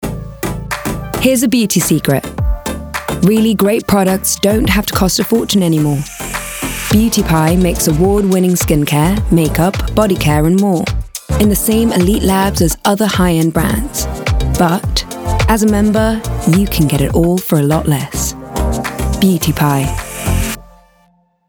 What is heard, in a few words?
Ad; Beautypie